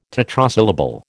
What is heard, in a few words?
"tetrasyllable", American